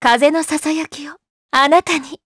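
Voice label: voice, Japanese; Laudia; kingsraid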